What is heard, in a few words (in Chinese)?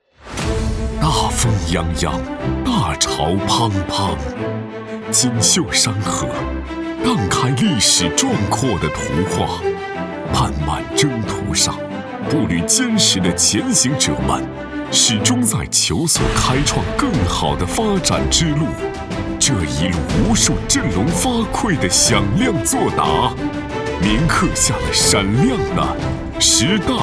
男 大气烟草专题 烟草专题片